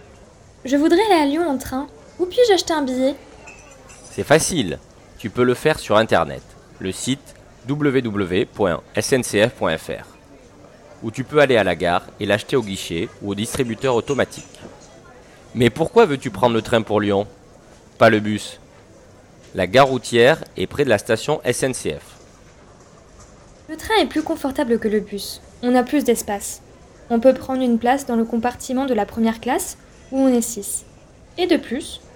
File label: dialogue, francais